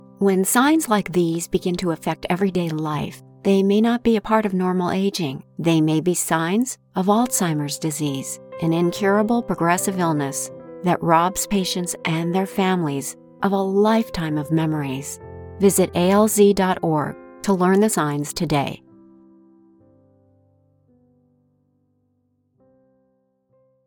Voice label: with music

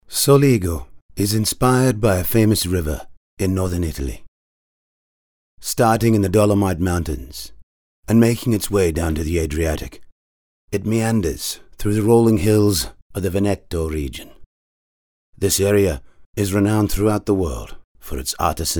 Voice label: Italian or Euro accent

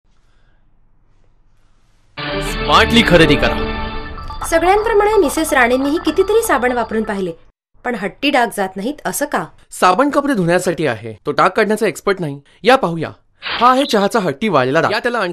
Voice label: Marathi TVC All Female Voices; Vanish

